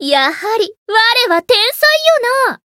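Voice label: Voice growth, Japanese; tiramisu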